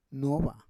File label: "nuova", Roon